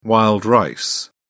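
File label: "wild rice", British English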